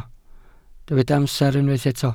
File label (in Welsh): "amser", Welsh